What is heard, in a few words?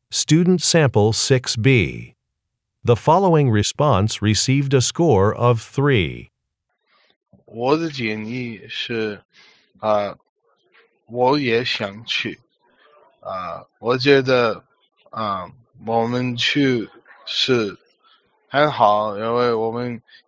chinese conversation; Conversation 6 Sample B